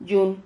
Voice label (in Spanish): "yun", Silabas